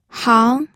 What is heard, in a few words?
"hang2", pinyin audio